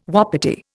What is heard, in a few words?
"wapiti", Indian